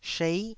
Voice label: "shei9", taiwanese Syllables